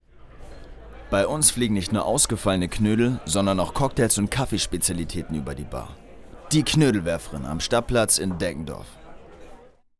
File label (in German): Werbung Reminder